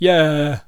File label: Male Voice Yeah